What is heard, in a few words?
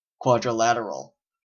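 "quadrilateral", Canadian English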